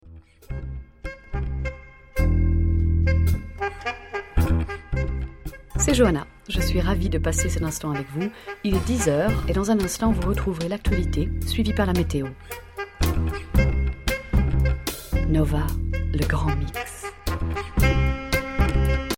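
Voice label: French radio; sprecher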